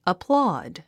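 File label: "applaud", English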